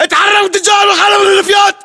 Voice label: order cover dumpster, battle chatter, english